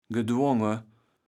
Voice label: "gedwonge", Kaevels Platt